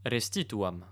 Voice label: repair, romans male

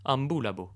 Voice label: walk, romans male

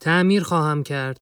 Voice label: civ male build, persian

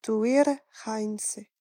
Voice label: civ female repair, napatan

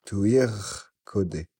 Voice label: civ male build, napatan

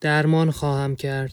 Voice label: civ male heal, persian

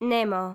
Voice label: female herd, greek

